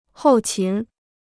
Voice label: "后勤", Chinese

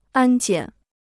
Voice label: "安检", Chinese

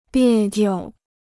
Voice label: "别扭", Chinese

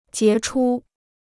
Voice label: "杰出", Chinese

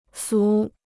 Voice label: "俗", Chinese

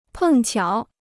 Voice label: "碰巧", Chinese

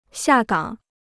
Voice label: "下岗", Chinese

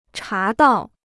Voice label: "茶道", Chinese

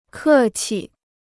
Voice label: "客气", Chinese

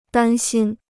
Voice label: "担心", Chinese

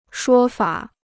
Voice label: "说法", Chinese

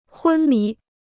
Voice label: "昏迷", Chinese

